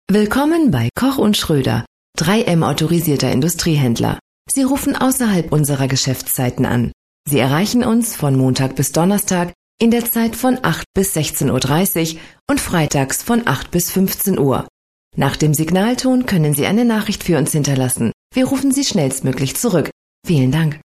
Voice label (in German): Anrufbeantworter; Koch und Schroeder